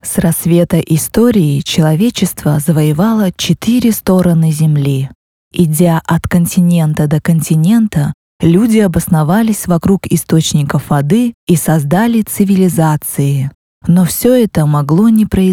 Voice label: russian narration